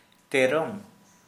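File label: "bee", Santali, male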